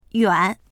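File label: "yuan3", Mandarin Chinese; 远; 遠い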